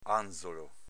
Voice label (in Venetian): "anzolo", Veneto; ànzolo s.m. angelo